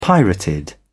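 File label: "pirated", British English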